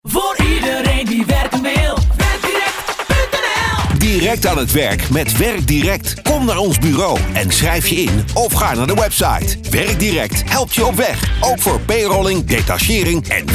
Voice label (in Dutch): radiocommercial; Werkdirekt